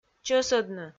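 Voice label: "чӧсӧдны", Komi, female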